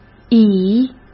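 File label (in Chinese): "以", Mandarin Chinese; hsk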